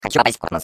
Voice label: Space core babble, ru; Core voice lines